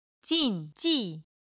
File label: "jin4ji4", Chinese